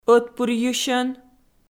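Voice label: "ӧтпырйысьӧн", Komi, female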